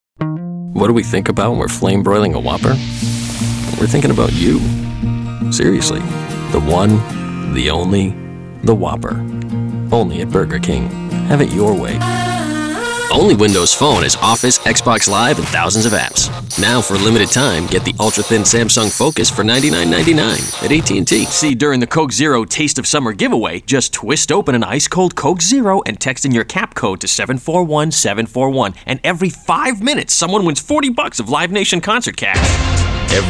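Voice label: Male Commercial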